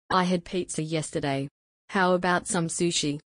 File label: female